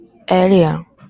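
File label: "alien", Japanese, female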